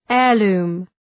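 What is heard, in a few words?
"heirloom", English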